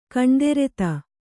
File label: "ಕಣ್ದೆರೆತ", kannada